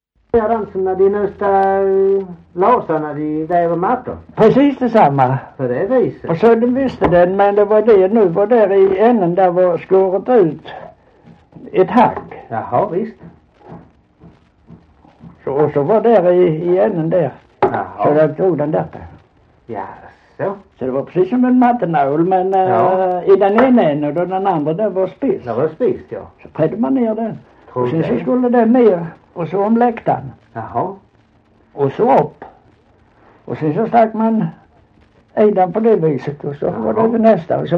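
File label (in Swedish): Holm Halland, dialekter; Folkminnen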